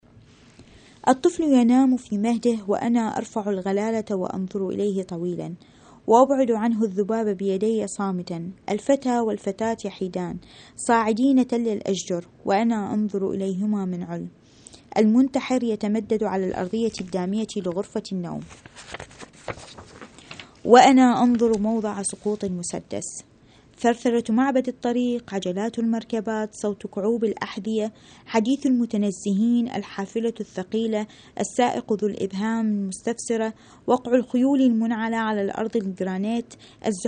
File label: arabic